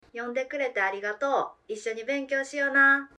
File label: Closing Statement Kansai; Kyoto and Kansai Dialects; Japanese dialects